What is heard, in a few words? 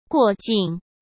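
"过境", Mandarin Chinese; hsk; chinese-flashcards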